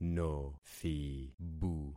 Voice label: "nothibu", concat syllables; Affixing experiment